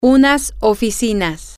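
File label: some offices, Spanish, female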